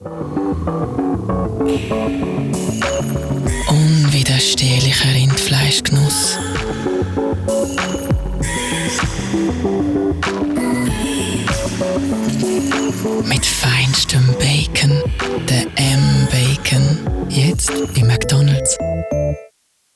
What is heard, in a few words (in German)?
Werbung Sanft